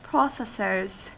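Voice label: "processors", US English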